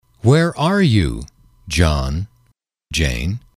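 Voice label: where are you John Jane, English, male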